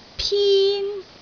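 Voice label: "pin1", Cantonese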